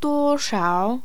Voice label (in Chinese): "duo1shao3", pinyin